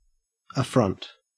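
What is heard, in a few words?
"affront", Australian English; pronunciations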